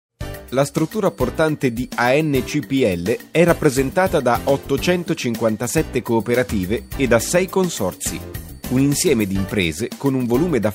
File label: Italian commercial